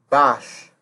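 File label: "bash", Nigerian Pidgin, male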